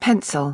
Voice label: "pencil", English; express english